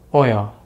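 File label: "oil", American English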